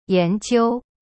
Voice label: "研究 yan jiu", Chinese; cyberchinese

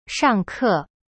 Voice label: "上课" shang ke, chinese

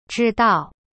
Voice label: "知道" zhi dao, chinese; cyberchinese

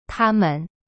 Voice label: "他们" ta men, chinese

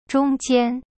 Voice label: "中间" zhong jian, chinese; cyberchinese

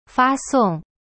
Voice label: "发送", Chinese; fa song

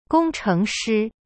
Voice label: "工程师", Chinese; gong cheng shi; cyberchinese

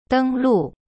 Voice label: "deng1lu4", Chinese; cyberchinese

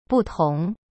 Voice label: "不同" butong, chinese

